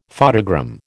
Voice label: "photogram", American